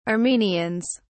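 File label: "armenians", British English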